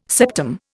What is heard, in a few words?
"septum", Indian